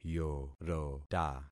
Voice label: "yorota", concat syllables; Affixing experiment

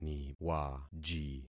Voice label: "niwaji", concat syllables; Affixing experiment

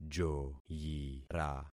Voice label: "joyira", concat syllables; Affixing experiment

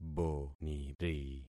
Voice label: "boniri", concat syllables; Affixing experiment

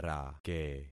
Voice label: "rake", concat syllables; Affixing experiment

